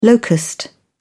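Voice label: "locust", English, British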